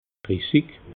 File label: "drissig", alsace